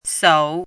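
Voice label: "sou3", Mandarin pinyin syllable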